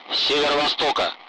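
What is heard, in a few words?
Speech Sound Russian Common Allied Flight; DCS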